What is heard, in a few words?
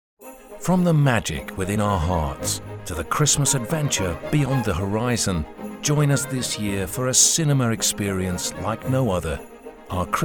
male english christmas cinema commercial